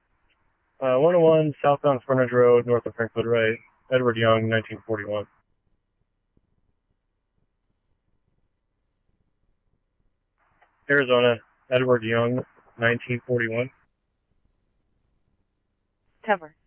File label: scanner, Scottsdale Police